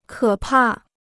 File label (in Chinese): "可怕", Chinese